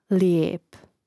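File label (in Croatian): "lijep", Croatian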